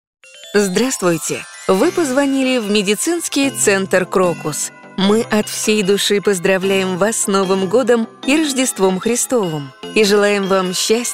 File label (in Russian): Новогодний автоответчик на телефон; ДИКТОРЫ ДЛЯ IVR